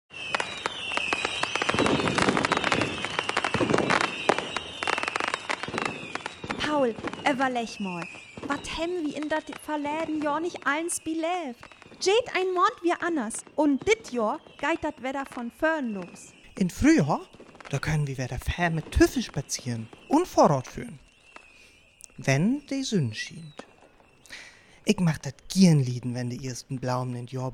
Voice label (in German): Dialog, niederdeutsch